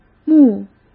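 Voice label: "墓", Mandarin Chinese; hsk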